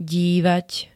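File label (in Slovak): "dívať", Slovak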